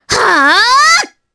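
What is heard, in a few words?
Vox Casting, Japanese; Mirianne; kingsraid; voices heroes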